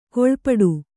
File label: "ಕೀಳ್ಪಡು", kannada